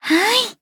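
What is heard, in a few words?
story, Japanese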